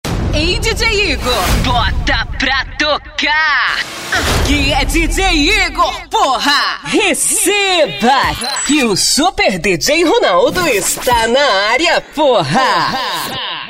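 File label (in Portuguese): ♀, vozes para vinhetas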